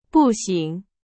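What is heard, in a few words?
Chinese, vocabulary; hsk4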